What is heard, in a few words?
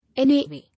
"Anyway", English